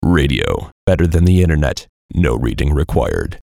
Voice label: VOICE COMICAL; Radio Voice -Better Than The Internet; Funny Sound

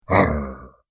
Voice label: Pirate Arrh, Cartoon Voice Sound Effects

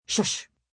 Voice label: Shush F, shush, be quiet; (human voice speaking); Whispering

